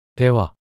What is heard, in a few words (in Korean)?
"대화" 단어 남자